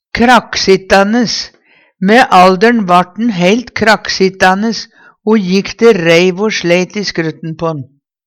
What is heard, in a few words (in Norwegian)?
krakksittanes, Numedalsmål